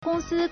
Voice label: "gong1 si1", Chinese